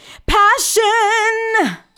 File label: PASSION, FEMALE VOICE; Best Service; Hallelujah